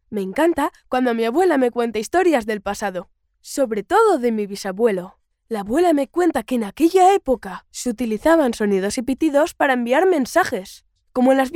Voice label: locutor nino spanish child boy voiceover, Spanish child voice